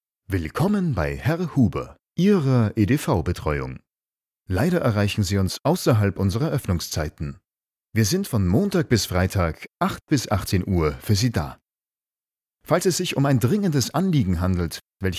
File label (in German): Telefonansage